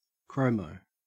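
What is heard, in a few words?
"chromo", English, Australian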